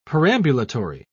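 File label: "perambulatory", British English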